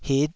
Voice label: "hit5", taiwanese syllable